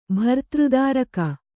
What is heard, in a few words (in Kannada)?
"ಭರ್ತೃದಾರಿಕೆ" Kannada; bhartř dārike; noun; a princess.